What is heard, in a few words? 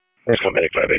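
EMS Dispatch; wake; p25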